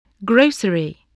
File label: "grocery", spelling